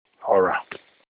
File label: fat, Olukumi